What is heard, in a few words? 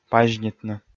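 "пазьнитны", Komi, male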